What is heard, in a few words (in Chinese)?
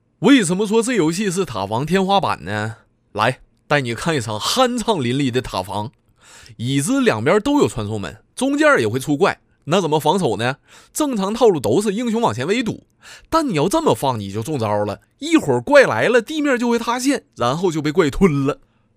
东北方言 男 东北话手游 塔防游戏; 方言配音